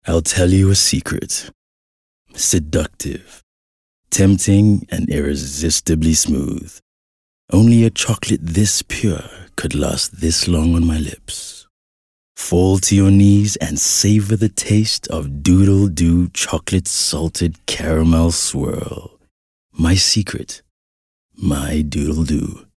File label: English Intimate; OSM Talent